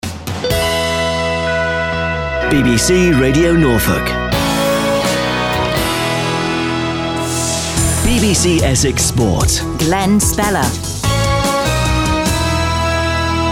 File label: Imaging